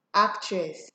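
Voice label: "actress", Nigerian Pidgin, female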